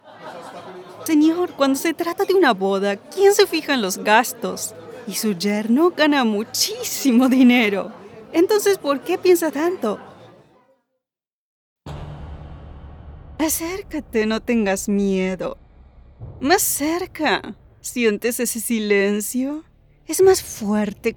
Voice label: character LatAm, voice-overs